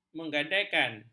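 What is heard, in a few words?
"menggadaikan", Malay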